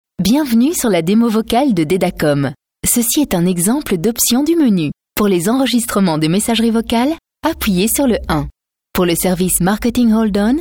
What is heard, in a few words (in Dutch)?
Voice over Frans Zwitsers vrouw